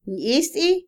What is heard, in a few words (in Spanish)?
Otomí, mujer; ácido, agrio; Percepción; INALI